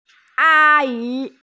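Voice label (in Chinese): "a1yi2", Mandarin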